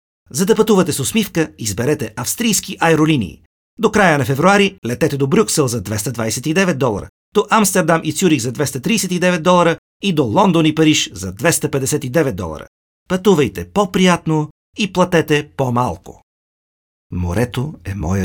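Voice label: Bulgarian, male, corporate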